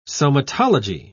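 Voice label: "somatology", British English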